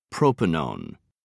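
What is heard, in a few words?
"propanone", British English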